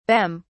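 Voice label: "bem", British English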